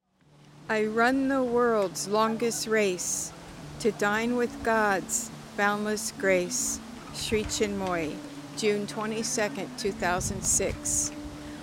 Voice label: poem